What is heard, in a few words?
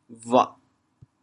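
"bhuah4", Teochew, chaoyang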